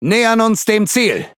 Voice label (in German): Speech Pilots Fighter Engage, DE; World Of Warships